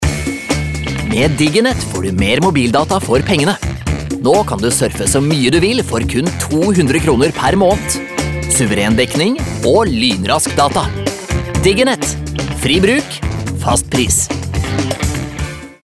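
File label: Norwegian upbeat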